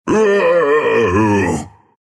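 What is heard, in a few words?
anger, Russian; Treant; Dota 2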